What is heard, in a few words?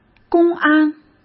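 "公安", Mandarin Chinese; chinese-flashcards; hsk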